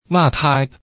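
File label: "馬蹄 mâ thài", Hakka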